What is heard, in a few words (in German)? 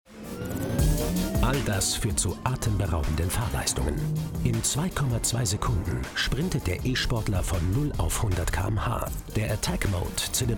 Werbung; PORSCHE